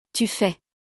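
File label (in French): "tu fais", French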